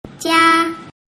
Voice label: "jia", mandarin